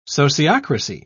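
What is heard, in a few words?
"sociocracy", British English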